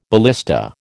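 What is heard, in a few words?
"ballista", American English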